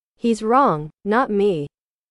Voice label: english